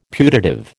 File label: "putative", American